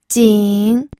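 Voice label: "jing3", Mandarin Chinese third tone; pinyin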